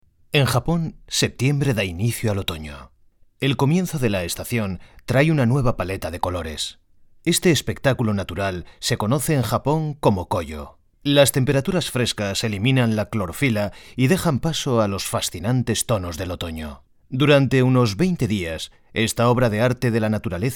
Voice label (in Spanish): corporativo